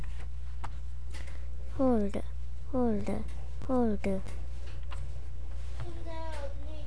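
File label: "hold", spoken word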